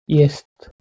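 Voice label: "jest", Proto-Indo-European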